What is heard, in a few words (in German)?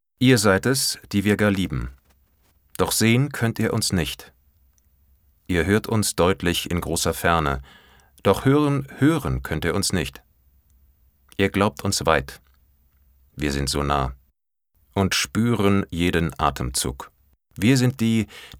sachlich, maenner